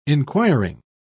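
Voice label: "enquiring", British English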